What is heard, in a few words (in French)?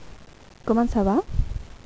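"comment ça va", French; QUE TAL?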